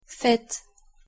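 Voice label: "faites", French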